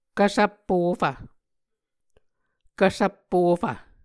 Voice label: "kvsvppofv", Muscogee

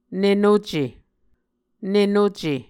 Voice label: "nenuce", Muscogee

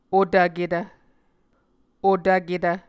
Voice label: "otvketv", Muscogee

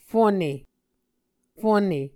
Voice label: "fone", muscogee

